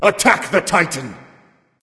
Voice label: vox attack m; smite; anubis